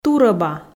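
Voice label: "турӧба", Komi, female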